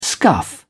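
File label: "scuff", English, British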